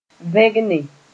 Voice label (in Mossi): "vẽgne", moore